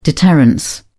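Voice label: "deterrence", British English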